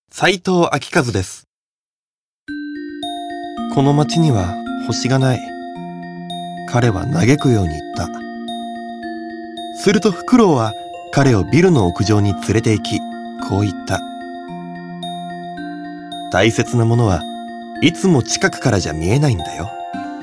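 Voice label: commercial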